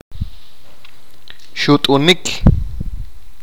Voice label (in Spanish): soplar, achi